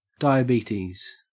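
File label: "diabetes", Australian English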